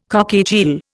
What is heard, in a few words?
"cockatiel", Indian